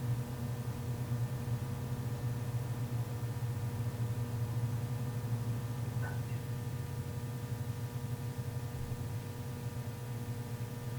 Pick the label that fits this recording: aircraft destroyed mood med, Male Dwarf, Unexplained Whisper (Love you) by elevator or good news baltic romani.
Unexplained Whisper (Love you) by elevator